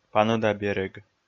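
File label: "паныда берег", Komi, male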